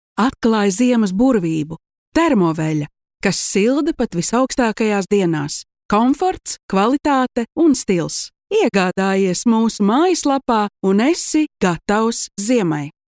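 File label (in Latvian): Reklama Latvian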